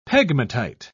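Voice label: "pegmatite", English, British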